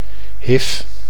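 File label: "HIV", Dutch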